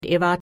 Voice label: "iwwer", Pennsylvania Dutch; v in vote

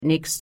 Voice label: "nix", Pennsylvania Dutch; i in sit

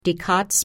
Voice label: "die Katz", Pennsylvania Dutch

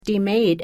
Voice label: "die Maed", Pennsylvania Dutch; ea in bear

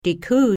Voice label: "die Kuh", Pennsylvania Dutch; h (middle and end)

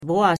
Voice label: "waar", Dutch pronounce vowels

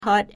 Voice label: "hot", Pennsylvania Dutch; o in son

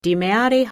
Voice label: "die Mary", Pennsylvania Dutch; m in make

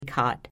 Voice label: "g'hatt", Pennsylvania Dutch; g'h- (beginning); k in kid

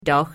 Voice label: "doch", Pennsylvania Dutch; d (beginning or middle); d in dog

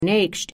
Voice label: "naegscht", Pennsylvania Dutch

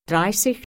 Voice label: "dreissich", Pennsylvania Dutch

